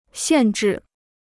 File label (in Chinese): "限制", Chinese